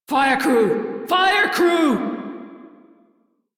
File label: Crew See Fire, Female; Dialog; en; Warframe; Railjack Crew; Steel Meridian